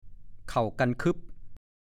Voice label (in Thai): "เข่ากันคึ่บ", ภาษาโคราช; เข่ากันคึ่บ / 1. เข้ากันเป็นปี่เป็นขลุ่ย; ฐานข้อมูลพจนานุกรมภาษาโคราช